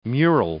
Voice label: "mural", English